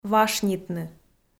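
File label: "вашнитны", Komi, female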